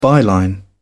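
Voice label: "byline", English, British English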